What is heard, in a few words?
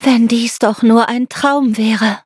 defeat, de; Cookie Run